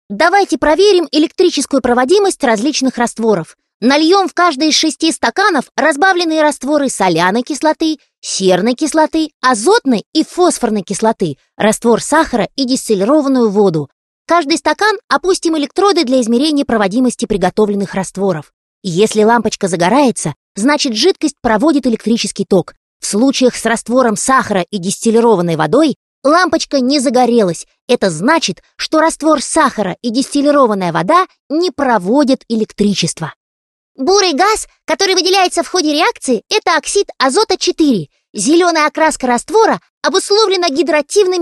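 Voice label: Russian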